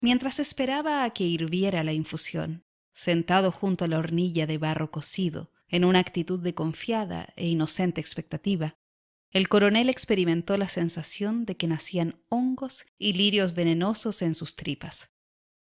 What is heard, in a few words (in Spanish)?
Audiolibro